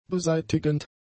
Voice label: "beseitigend", german; adjectives